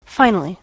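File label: "finally", US English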